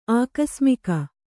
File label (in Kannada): "ಆಕಸ್ಮಿಕ", Kannada